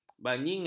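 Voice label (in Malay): "bajingan", Malay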